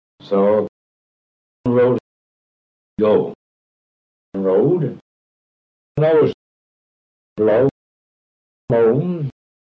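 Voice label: kent staples male goat, accents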